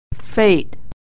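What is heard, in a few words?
"fate", American English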